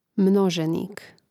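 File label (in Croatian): "mnozenik", Croatian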